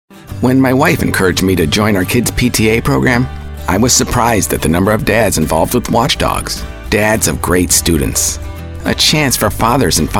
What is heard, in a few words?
commercial